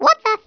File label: "what the", English speech